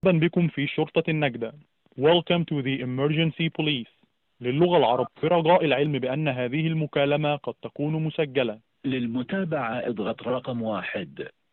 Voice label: Call recording Emergency number